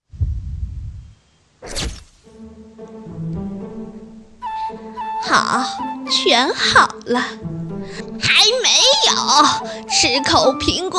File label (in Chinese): 女 动漫 一人多角